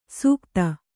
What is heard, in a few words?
"ಸುಗತ", Kannada